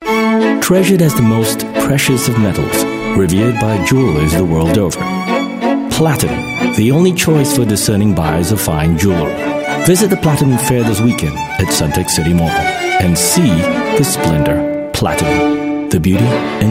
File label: Asian English Luxury TVC, English Singaporean Male